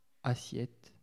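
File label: "assiette", French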